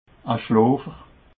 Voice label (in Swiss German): "schlofer", alsace